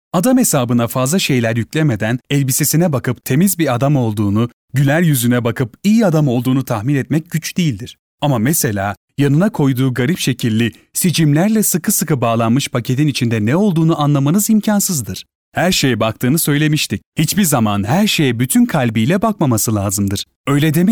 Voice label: male turkish voice over talent upbeat